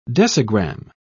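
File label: "decigram", English, British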